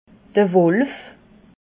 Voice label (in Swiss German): "wolf", alsace